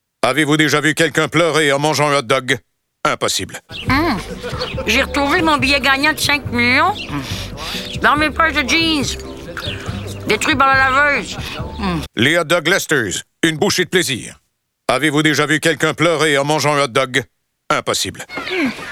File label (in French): Publicite